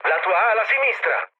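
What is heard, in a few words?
Italian left wing mood low, speech; War Thunder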